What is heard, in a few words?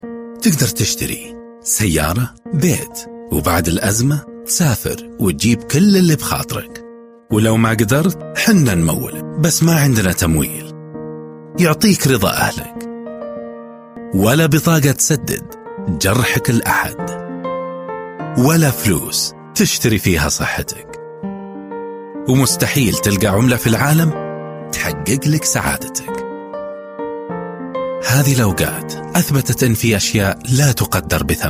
arabic voice over male narrator; Arapça Seslendirme